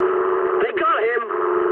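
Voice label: English aircraft destroyed mood med; speech; War Thunder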